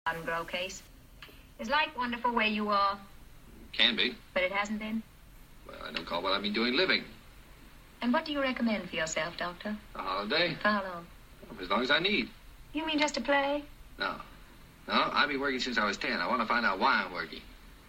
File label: mid atlantic answer; holiday with hepburn